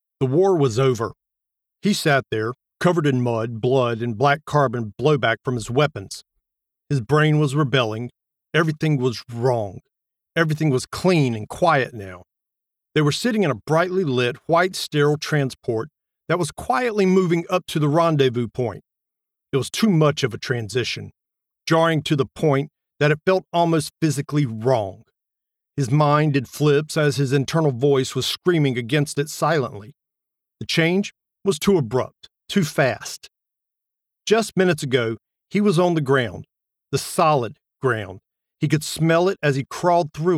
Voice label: Male Dramatic narrative; Demo Reel